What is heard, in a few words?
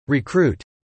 "recruit", English